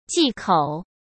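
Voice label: "忌口", Mandarin Chinese; chinese flashcards; hsk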